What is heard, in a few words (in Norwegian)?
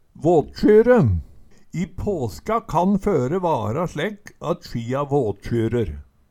vatsjyre, Numedalsmål